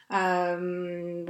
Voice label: hesitation ummmmm